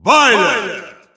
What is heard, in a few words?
Russian Announcer; Byleth; SSBU